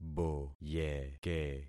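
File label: "boyeke", concatenated syllables; Affixing experiment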